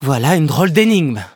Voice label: defeat, fr; cookie run